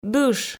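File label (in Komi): "дыш", Komi, female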